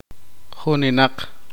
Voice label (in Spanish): "veinte", Poqomchi